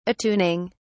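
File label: "attuning", British English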